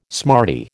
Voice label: "smarty", American